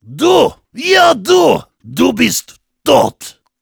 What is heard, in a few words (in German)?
Heavy taunts, de